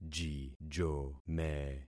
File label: "jijome", concat syllables; Affixing experiment